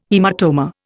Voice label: "haematoma", Indian